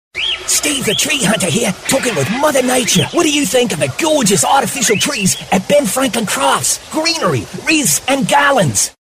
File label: AUSTRALIAN accent voiceover